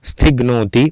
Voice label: "stighnooti", Sanskrit